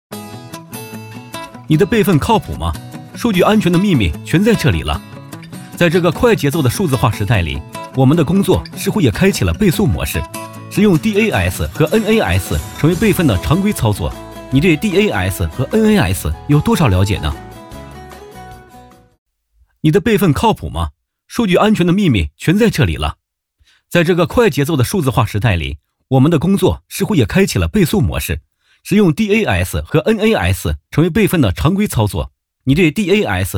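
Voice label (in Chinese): 轻快视频配音; 飞碟说西部数据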